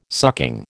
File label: "sucking", American